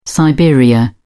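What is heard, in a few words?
"siberia", British English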